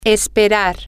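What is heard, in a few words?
to Hope, Spanish, female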